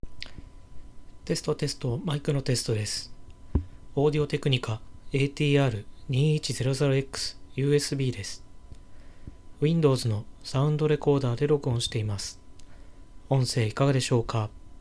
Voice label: ATR2100x USB windows